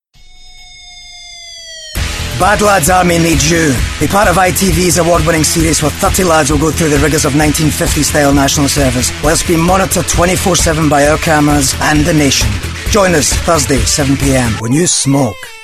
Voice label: Scottish